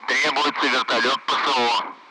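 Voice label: Speech Sound Russian Common Allied Flight; Request CSAR; DCS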